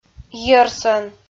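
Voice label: "йӧрсӧн" Komi, female; audio dict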